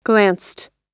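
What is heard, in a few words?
"glanced", American English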